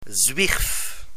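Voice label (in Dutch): "zwierf", Dutch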